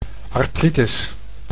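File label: "artritus", Dutch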